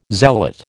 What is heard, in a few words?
"zealot", American